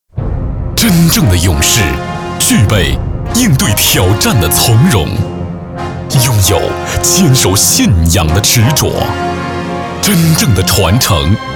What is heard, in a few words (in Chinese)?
男国 宣传片 企业 激情; 中石油企宣